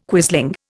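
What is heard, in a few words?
"quisling", Indian English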